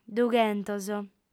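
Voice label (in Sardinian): "dughentos", female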